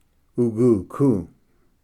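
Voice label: "uguku", Cherokee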